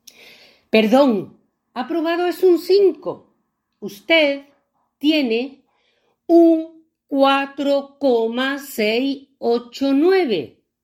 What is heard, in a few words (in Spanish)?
profesora; mucho teatro; asterisco